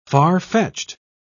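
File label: "farfetched", British English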